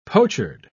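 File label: "pochard", British English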